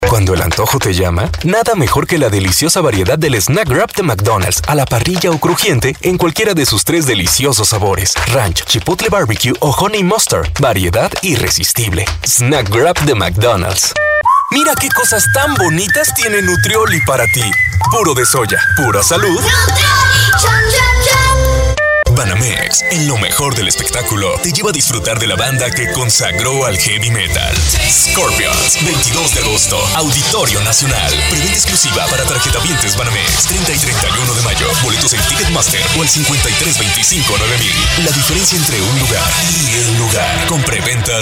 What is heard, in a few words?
spanish voice over male commercial